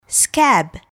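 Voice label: "scab", English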